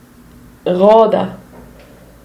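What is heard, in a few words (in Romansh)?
"roda" Romansh, Sursilvan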